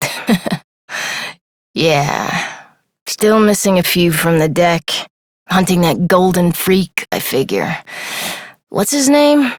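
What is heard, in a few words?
Adult, English; New War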